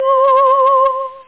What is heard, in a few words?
lady vox